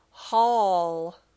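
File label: "hall", English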